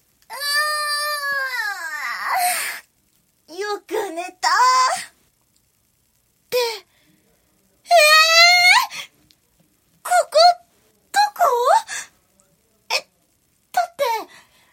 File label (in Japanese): フリートーク BGM無し; ち○ぽネタ